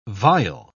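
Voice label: "viol", English word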